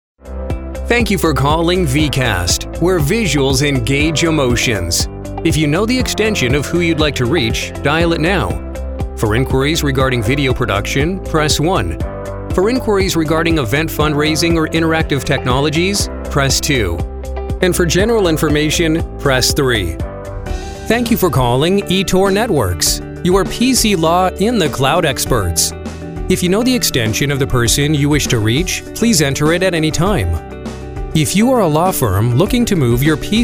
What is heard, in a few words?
phone